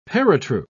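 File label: "paratroop", British English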